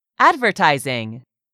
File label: "advertising", English; 3000 words